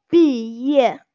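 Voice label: "bi ye", Mandarin Chinese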